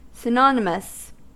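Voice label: "synonymous", American English; pronunciation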